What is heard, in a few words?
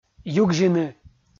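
"югзьыны", Komi, male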